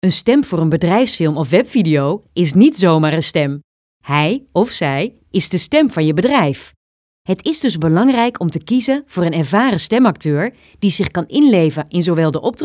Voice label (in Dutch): BEDRIJFSFILM